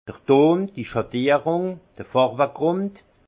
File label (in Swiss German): "ton", alsace; vin